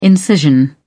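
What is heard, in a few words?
"incision", British English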